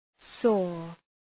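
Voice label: "saw" English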